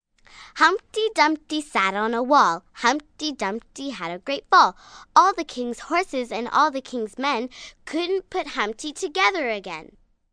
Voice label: Rhyme, 1 girl child, sweet, human voice, kid, female; 'Humpty Dumpty'; Talking